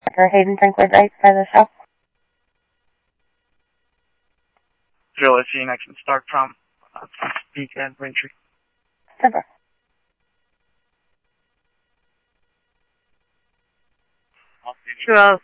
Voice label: Scottsdale Police, scanner; SCTSDLE PD - PD2; ThompsonPeakHigh